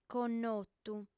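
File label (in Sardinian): "connotu", female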